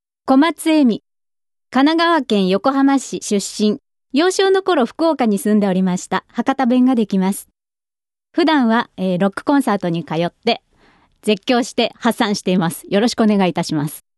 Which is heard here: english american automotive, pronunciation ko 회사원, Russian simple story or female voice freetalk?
female voice freetalk